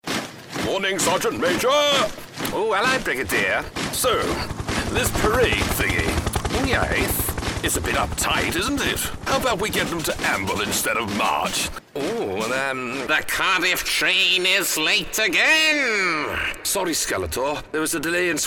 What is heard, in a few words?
character reel